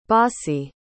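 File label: "bassi", English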